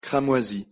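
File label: "cramoisi", French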